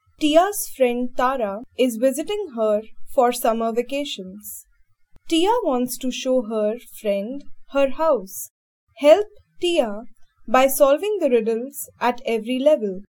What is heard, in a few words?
dialog; Elevate LMS; EVS; SolveThis